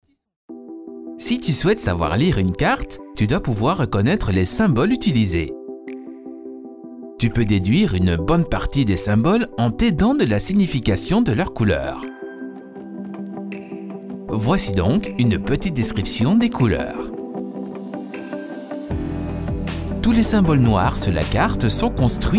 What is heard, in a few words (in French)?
institutionnelle